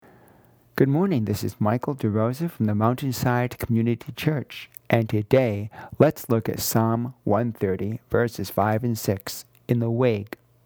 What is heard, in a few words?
Radio Sermonette; Psalm; HOPE